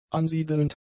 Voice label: "ansiedelnd", German; adjectives